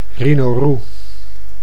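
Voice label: "rinorroe", Dutch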